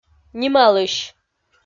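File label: "нималысь", Komi-Zyrian, female; kpv audio dict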